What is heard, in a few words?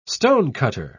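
"stonecutter", English, British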